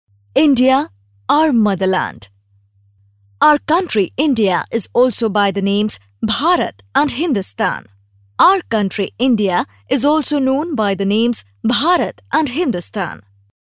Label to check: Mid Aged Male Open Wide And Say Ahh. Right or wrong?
Wrong